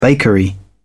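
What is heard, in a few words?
"bakery", British English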